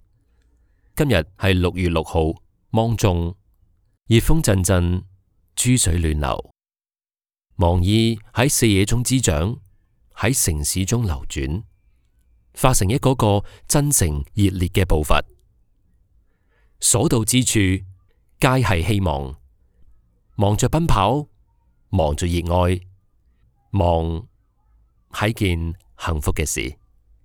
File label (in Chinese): 粤语